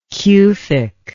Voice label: "cufic", english